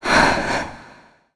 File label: vox, en; kingsraid